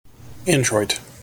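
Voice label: "introit", US English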